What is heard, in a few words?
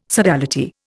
"sodality", Indian